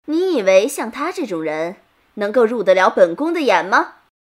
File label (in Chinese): 女 御姐